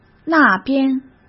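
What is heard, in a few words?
"那边", Mandarin Chinese; chinese flashcards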